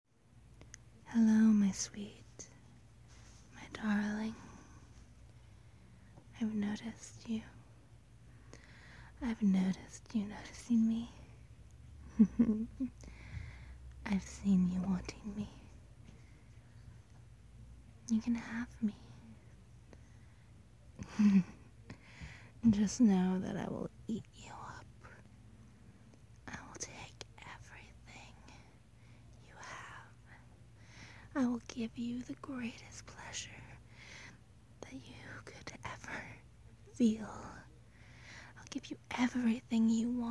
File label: Succubus whispers; fantasy; temptation; improv